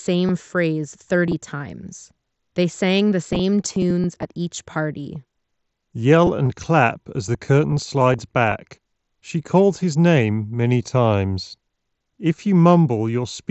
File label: HyperX Cloud Jet ideal